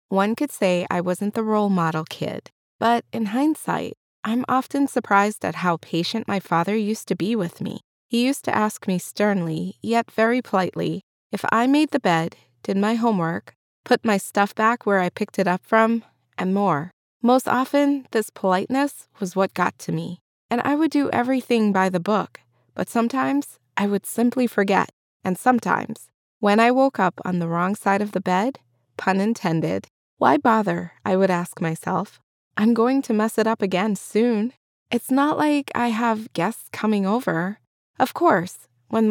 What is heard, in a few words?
Teenager Audiobook